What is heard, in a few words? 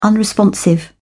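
"unresponsive", English, British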